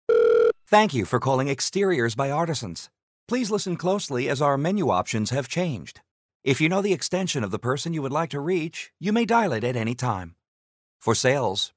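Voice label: Telephony interactive voice response, New Zealand English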